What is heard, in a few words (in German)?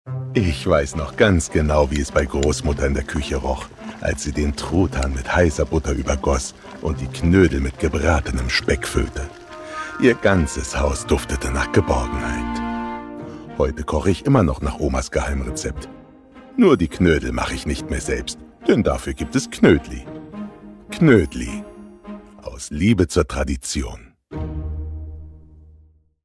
Knoedel Werbung